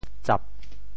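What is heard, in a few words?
"tsap4", Teochew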